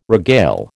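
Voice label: "regale", American English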